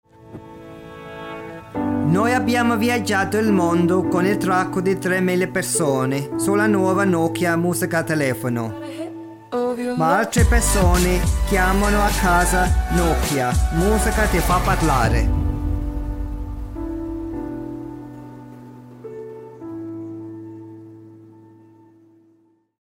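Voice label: Italian, General; GoLocalise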